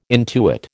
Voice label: "intuit", American

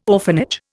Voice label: "orphanage", Indian

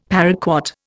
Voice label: "paraquat", Indian; Dictionary

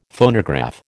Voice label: "Phonograph", American

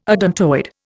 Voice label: "odontoid", Indian

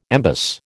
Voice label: "embus", American

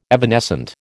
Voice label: "evanescent", American

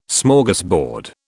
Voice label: "Smorgasbord", British

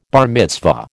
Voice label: "bar mitzvah", American

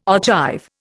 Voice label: "ogive", Indian

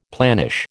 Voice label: "planish", American

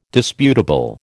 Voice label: "disputable", American

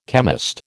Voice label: "chemist", American

